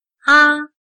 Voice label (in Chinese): "阿", chino